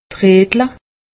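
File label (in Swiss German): "falschereetle", Alsatian; medecine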